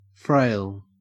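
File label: "frail", Australian English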